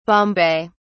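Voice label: "bombay", British English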